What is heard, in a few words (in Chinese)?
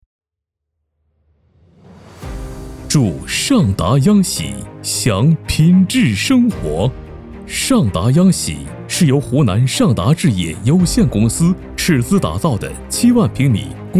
楼盘广告; 尚达央玺